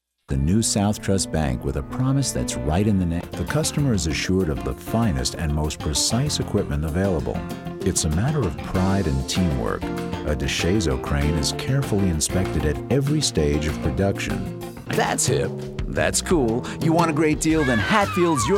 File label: comm, male voice talent